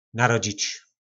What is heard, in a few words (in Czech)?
"Narodźič", nářečí Po našimu; Narodit(se)